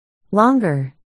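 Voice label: "longer", spoken word, articulation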